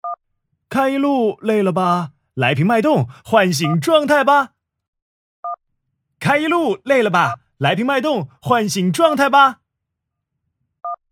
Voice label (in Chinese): 欢快口播广告; 脉动